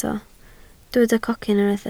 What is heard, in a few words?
"cocyn", Welsh